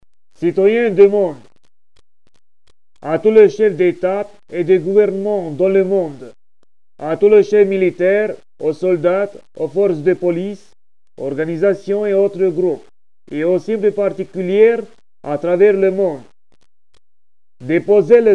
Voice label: global disarm call, French